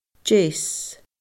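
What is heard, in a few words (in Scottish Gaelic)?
"deas", Scottish Gaelic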